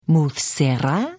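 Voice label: Hello, Khajiit female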